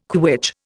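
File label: "quitch", Indian English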